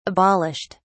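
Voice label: "abolished", British English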